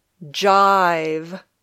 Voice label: "jive", English

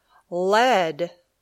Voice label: "led", English

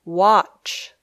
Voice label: "watch", English

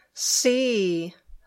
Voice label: "see", English

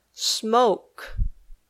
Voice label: "smoke", English

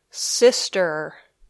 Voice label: "sister", English

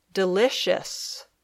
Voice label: "delicious", English

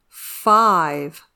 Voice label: "five", English

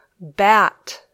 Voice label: "bat", English

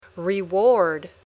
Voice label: "reward", English

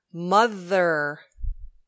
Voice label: "mother", English

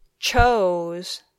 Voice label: "chose", English

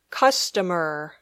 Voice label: "customer", English

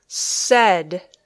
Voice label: "said", English

